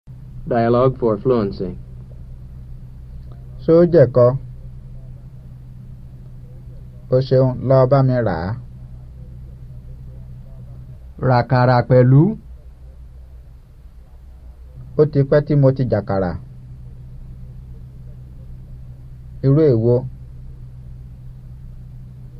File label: Yoruba; FSI; Basic